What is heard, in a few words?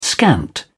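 "scant", British English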